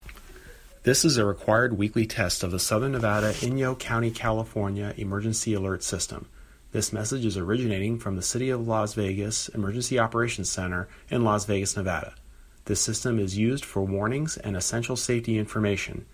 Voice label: English RWT